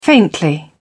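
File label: "faintly", British English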